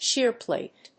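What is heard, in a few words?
"Shear plate", English; シアプレート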